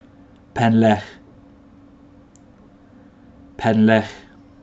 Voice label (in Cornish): "Pennlegh", Place Names Pronunciation